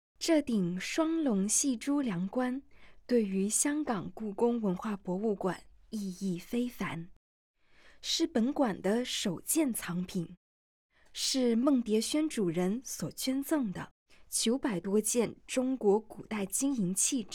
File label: Putonghua